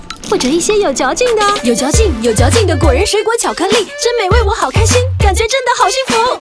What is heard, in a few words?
female character videogames